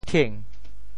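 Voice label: "thieng2", Teochew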